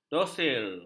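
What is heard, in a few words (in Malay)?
"dosir", Malay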